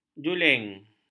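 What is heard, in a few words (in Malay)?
"juling", Malay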